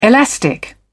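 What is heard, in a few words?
"elastic", British English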